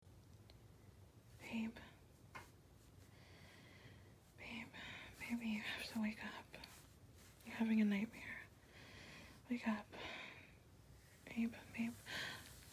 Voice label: F4A F4F F4M, sleepy voice, back rubbing noises, breathy, comfort; Nightmare; girlfriend; reassurance; pet names; soft